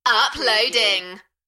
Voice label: UK female, "Uploading", Enthusiastic; beanstalk audio

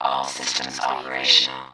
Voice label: Robot voice, all systems operational

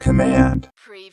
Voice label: Robot voice, "command"; lynne publishing

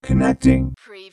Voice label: Robot voice, connecting; lynne publishing

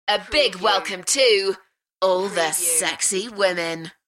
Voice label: UK female A big welcome to all the sexy women; beanstalk audio

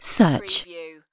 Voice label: "search", UK female; lynne publishing

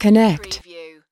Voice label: "connect", US female; lynne publishing

